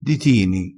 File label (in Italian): "ditini", crespinese